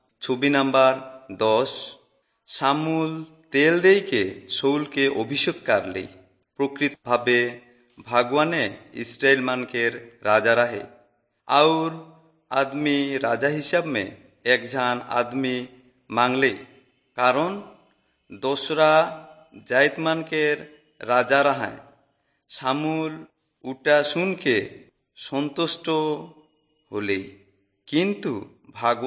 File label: servants of god urao, kurukh drama; bible